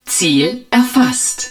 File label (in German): TARGET LOCKED, german, hud